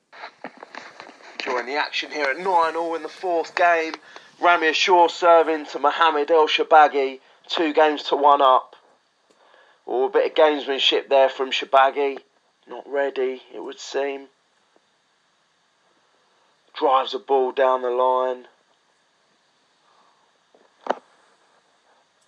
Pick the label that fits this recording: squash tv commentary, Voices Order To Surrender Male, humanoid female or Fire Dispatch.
squash tv commentary